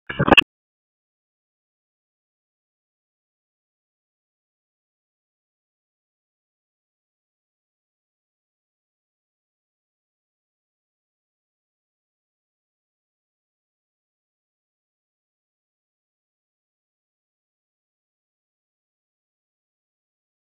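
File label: Raleigh Police Southwest District Tactical, p25; wake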